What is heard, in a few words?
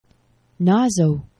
"nazo", Esperanto; geneva